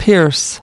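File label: "pierce", English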